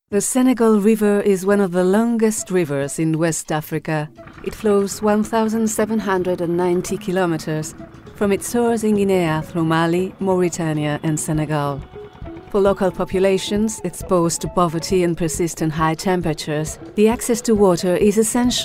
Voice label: female spanish english with spanish accent compilation